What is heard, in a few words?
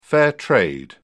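"fair trade", British English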